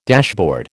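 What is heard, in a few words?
"dashboard", American English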